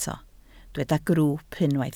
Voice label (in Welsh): "grwwp" Welsh